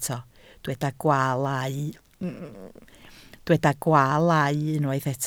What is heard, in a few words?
"gwaalau", Welsh